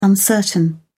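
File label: "uncertain", British English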